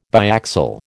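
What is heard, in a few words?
"biaxial", American English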